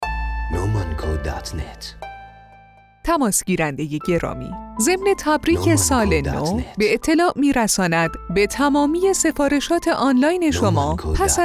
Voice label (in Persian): ضبط پیام صوتی اختصاصی برای نوروز